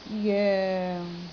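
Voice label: "joeng6", Cantonese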